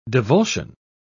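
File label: "divulsion", British English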